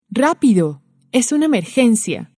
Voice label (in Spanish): rapido es una emergencia, Spanish